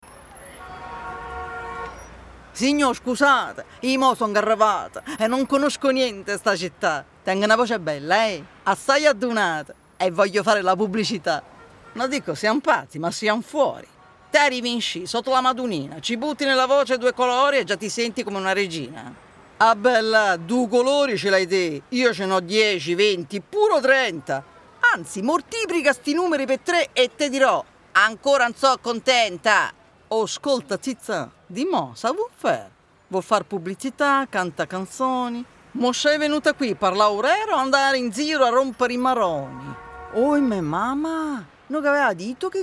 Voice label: Italian dialects

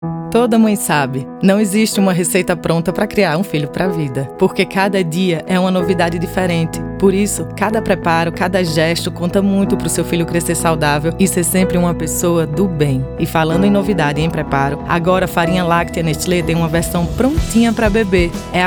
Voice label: Spot; FLN; hefty

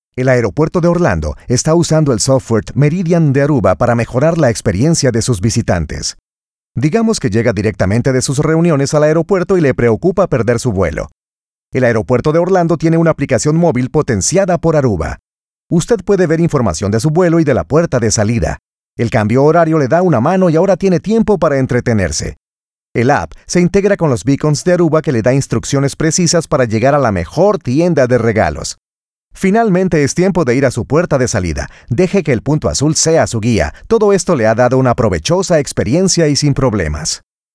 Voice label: E-learning

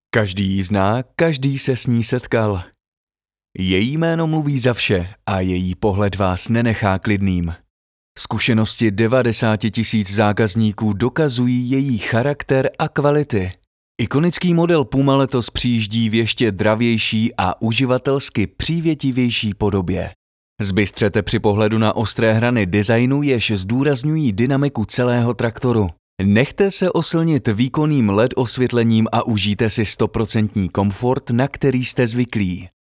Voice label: Commercial

